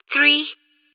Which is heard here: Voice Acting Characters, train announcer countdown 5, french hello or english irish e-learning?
train announcer countdown 5